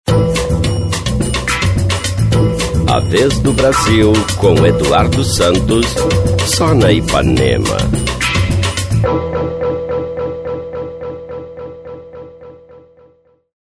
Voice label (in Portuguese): spot; vinhetas 2005; IpanemaFM